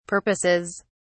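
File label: "purposes", British English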